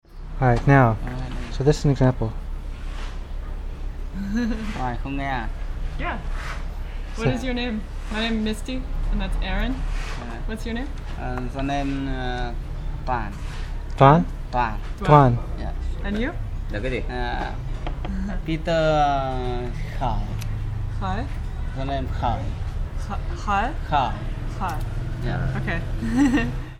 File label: Dialog, vietnam